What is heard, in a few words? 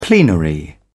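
"plenary", British English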